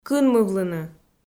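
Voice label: "кынмывлыны", Komi-Zyrian, female; kpv audio dict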